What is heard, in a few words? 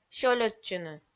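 "сёльӧдчыны", Komi, female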